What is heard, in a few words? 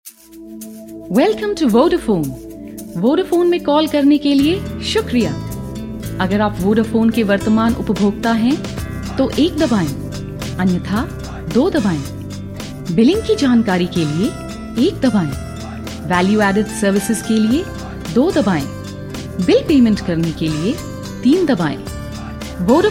hindi ivr, female voice